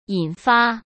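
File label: "引发" Mandarin Chinese; chinese flashcards; hsk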